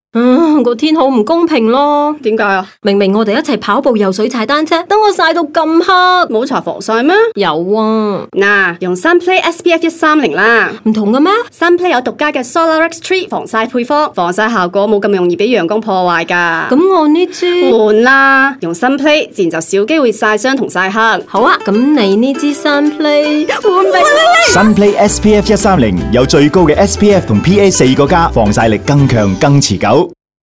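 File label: Radio Canto, Cantonese; Sunplay SPF130; Photostable version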